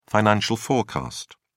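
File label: "financial forecast", British English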